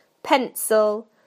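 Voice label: "pencil", British English; PictureDictionary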